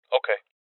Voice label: Confirmation Male

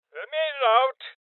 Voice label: Male Old; Rebel Cops

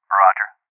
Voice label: Confirmation Male

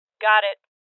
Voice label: Confirmation Female; rebel cops 2019 gamerip

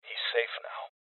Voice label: Voices Cop Evacuated Male

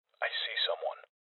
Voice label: Voices EnemySpotted Male

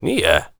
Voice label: warrior conversation emotion disagree

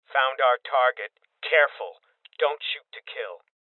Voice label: Voices Boss Spotted Male Young

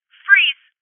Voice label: Voices Freeze Female

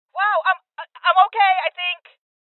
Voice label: Voices Armor Shot Female; rebel cops 2019

